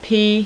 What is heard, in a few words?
"p" female, alphabet; Web Clip Empire 150,000